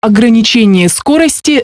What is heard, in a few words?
warning speed, Navitel; MioMap C220